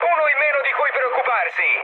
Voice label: player killed enemy mood med, speech it; War Thunder

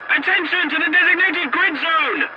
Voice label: voice message attention to point, english; War Thunder

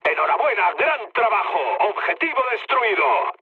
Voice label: bomb success mood med; War Thunder

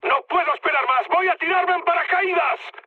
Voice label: bailout, mood high, speech; War Thunder

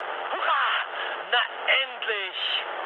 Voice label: enemy detected, mood high, speech ge; War Thunder

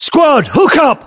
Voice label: voiceovers, US; localized english

